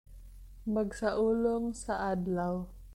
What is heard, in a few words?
Cebuano